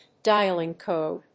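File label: "dialling code", English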